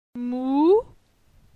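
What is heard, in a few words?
"Mou", French; phonetique; la syllabe ou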